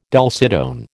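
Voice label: "dulcitone", American English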